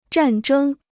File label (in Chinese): "战争", Chinese